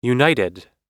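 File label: "united", American English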